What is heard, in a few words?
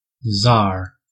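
"tsar", American English; pronunciations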